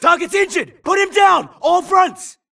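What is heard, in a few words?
grunt detect Player Low Health; imc; Titanfall 2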